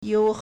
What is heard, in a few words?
"you1", Chinese